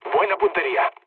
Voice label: bomb success, mood low, speech; War Thunder